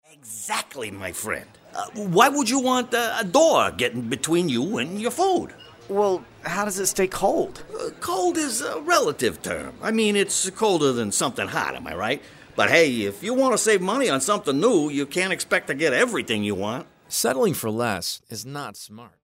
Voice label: new york new jersey